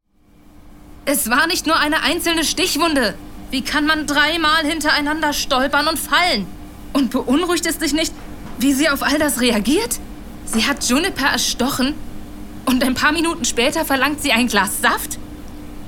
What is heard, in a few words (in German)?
Dialog